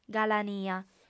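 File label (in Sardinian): "galania", female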